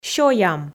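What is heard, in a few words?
"сёям", Komi, female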